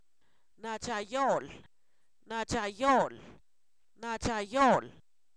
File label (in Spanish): Zapoteco, san miguel albarradas; tibio; adjetivos